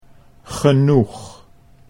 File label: "genoeg", Dutch